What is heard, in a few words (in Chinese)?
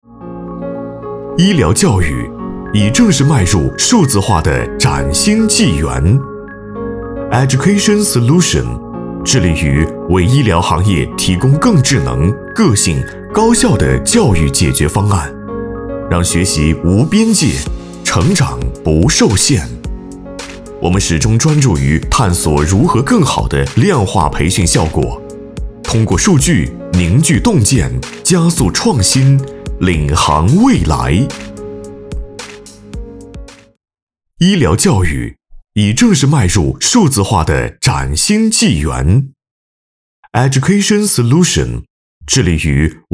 男,专题宣传,科技感大气自然,医疗